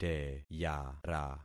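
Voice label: "teyara", concatenated syllables; Affixing experiment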